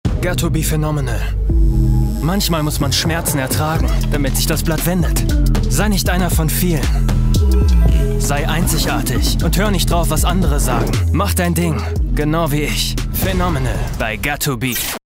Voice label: COMMERCIAL OFF UNCENSORED; got2b; LOFT VOICES